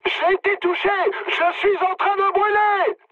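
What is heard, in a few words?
French on fire mood high; War Thunder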